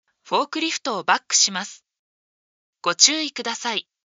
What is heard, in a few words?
forklift back, voice